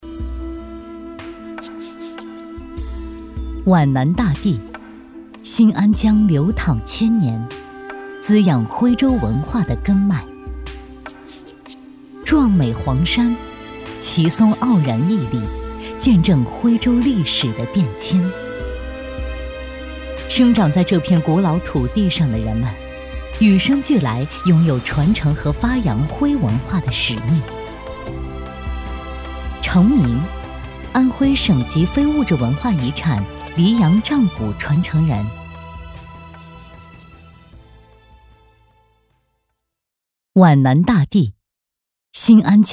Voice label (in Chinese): 女 国 大音; 黎阳仗鼓